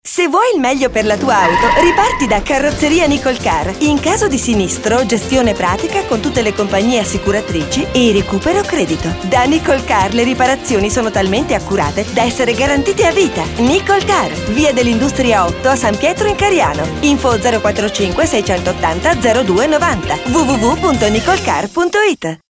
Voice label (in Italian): spot pubblicitario